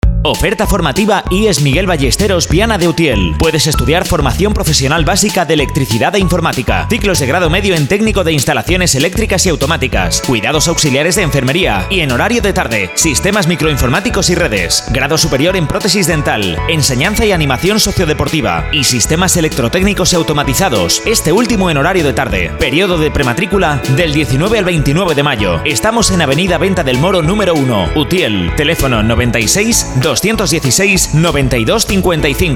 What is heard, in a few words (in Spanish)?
HOMBRE